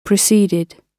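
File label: "preceded", British English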